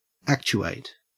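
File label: "actuate", Australian English; pronunciation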